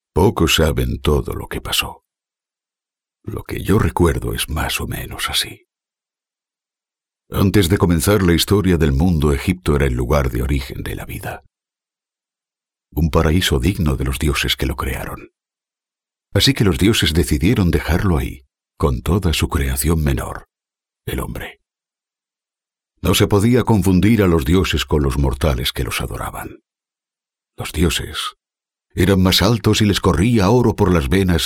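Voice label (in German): Spanish, Schauspiel Sanft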